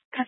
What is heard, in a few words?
Mesa County Sheriff Dispatch; scanner